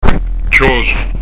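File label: "chjosu", Prononciation du corse; tj'òzu; Son "appuyé"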